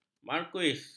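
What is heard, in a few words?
"marquis", Malay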